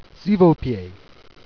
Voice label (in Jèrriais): "pliait", Jèrriais